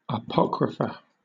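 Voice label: "apocrypha", British English; pronunciations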